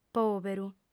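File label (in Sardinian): "pòberu", female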